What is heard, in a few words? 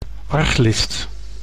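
"arglist", Dutch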